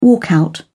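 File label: "walkout", British English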